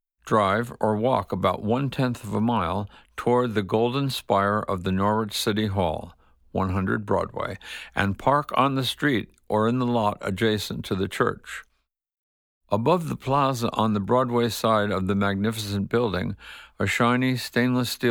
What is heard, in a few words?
Freedom Bell Narration